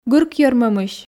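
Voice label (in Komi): "гырк йӧрмӧмысь", Komi, female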